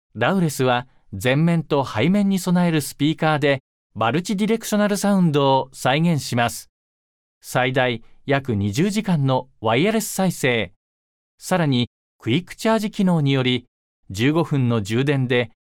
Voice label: JAPANESE MEN